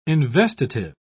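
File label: "investitive", British English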